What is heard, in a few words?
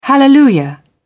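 "hallelujah", British English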